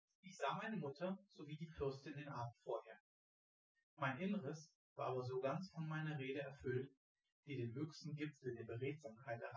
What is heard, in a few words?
male synthconv; SiSEC08; SiSEC underdetermined